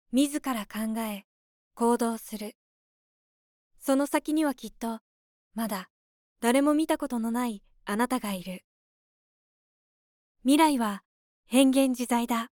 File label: female